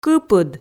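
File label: "кыпыд", Komi, female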